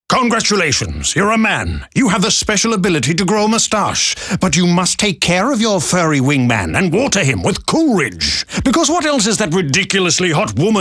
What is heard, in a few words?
RADIO; HOT WOMAN; Cool Ridge Spring Water: keep your wingman watered for Movember